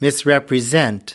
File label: "misrepresent", us